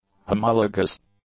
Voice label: "homologous", English; adjectives